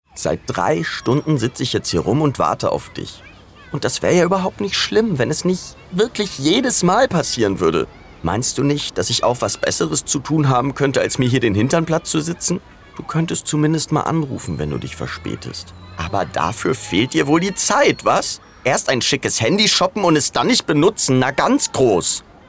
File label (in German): Verärgert